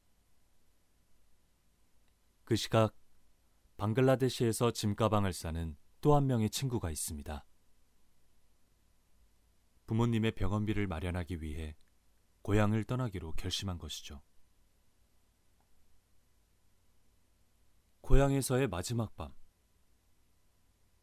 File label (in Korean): 내레이션 부드러운